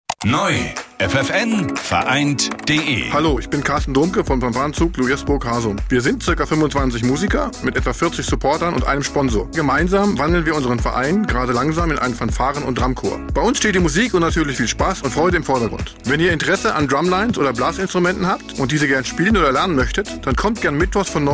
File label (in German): radio Spot; radio ffn